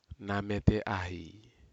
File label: "namete ahi", Aneityum; bushes